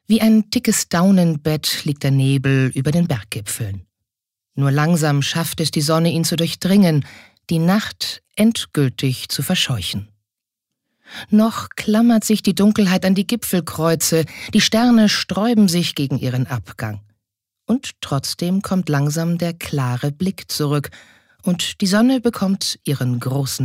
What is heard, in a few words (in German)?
Schauspiel Neutral